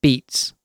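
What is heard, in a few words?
"beats", British English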